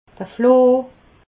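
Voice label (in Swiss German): "floh", Alsatian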